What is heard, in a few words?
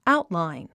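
"outline", English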